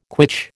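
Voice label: "quitch", American English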